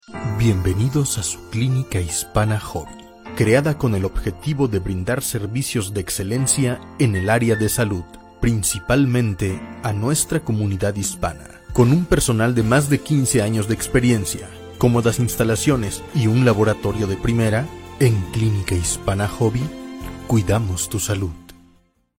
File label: onhold Clinica Hispana